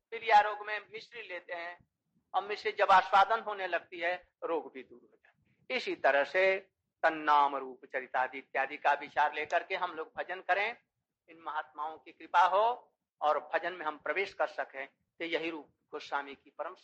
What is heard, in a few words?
Hindi; VRINDAVAN RUPA SANATANA AND RAGANUGA BHAKTI